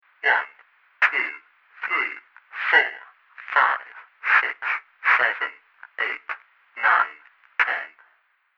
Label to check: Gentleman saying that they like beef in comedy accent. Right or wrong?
Wrong